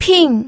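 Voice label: "ping", Mandarin Chinese, fourth tone; pinyin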